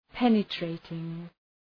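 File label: "penetrating", English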